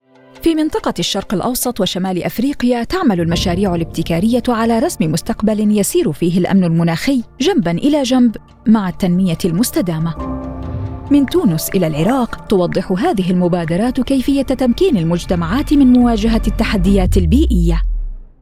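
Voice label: Arabic female Exlpainer; voice over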